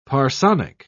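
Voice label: "parsonic", British English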